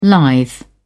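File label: "lithe", British English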